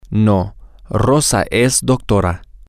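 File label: Spanish, male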